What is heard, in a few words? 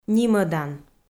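"нимӧдан", Komi, female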